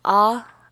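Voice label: "a", Nonnative, American English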